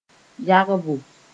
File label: "yagbo", Moore